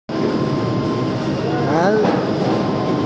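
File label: "nv3hai2", Mandarin Chinese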